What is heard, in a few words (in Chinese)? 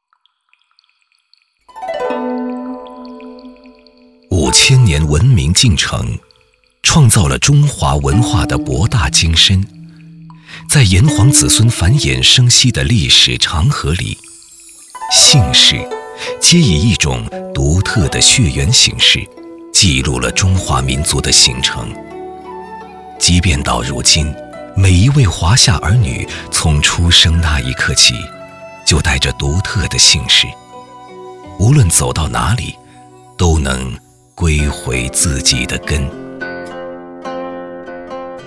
纪录片; 姓氏竹筒